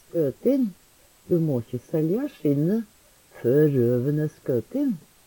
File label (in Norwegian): skotin, Numedalsmål; skøtin; Adjektiv; Jakt, fangst, fiske; Veggli